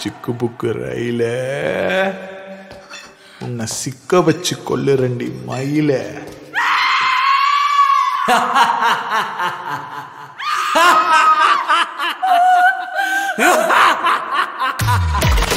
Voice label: Teaser Dialogue Ringtone, Kannada; Bagheera; Prabhu Deva